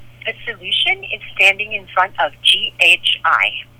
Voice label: Rotary Phone Message; 2019 Herald Hunt